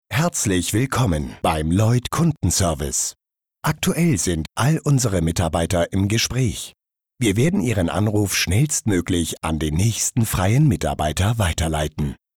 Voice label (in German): erste prio warteraum deutsch